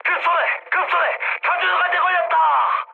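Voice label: Korean searchlite mood high; War Thunder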